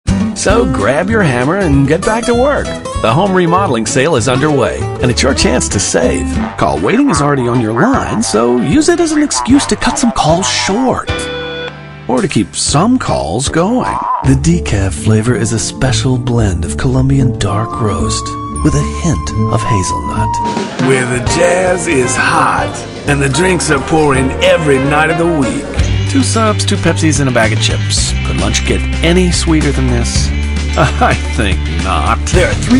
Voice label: Announcer; Commercial Announcer